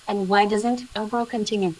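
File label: voice acting, es, female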